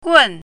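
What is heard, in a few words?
"gun4", Mandarin Chinese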